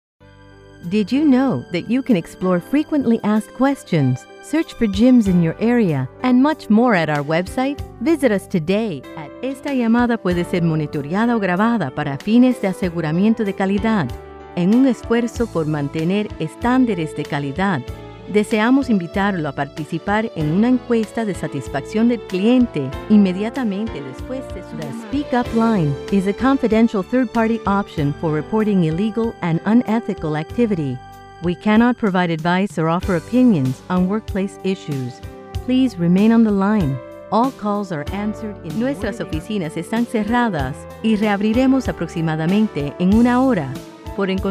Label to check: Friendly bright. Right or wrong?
Wrong